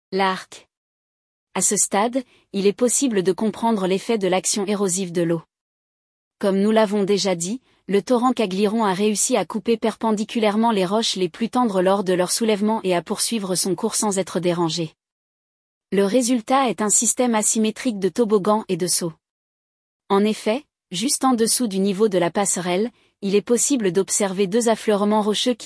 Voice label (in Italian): Audioguida FRA Arco